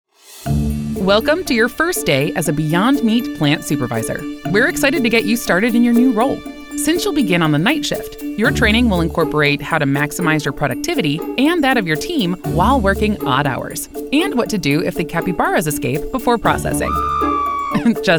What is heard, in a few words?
E-Learning